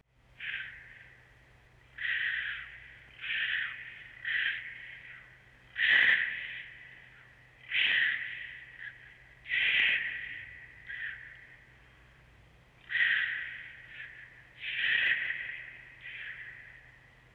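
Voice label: Sibilant 1 octave; Blind Man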